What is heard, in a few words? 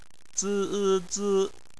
"zi", chinese